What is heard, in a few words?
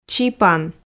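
"чипан", Komi, female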